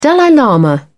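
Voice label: "dalai lama", British English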